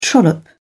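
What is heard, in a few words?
"trollop", British English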